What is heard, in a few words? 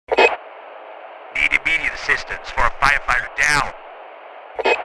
Firefighter radio chatter need immediate assistance